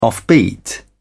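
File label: "offbeat", British English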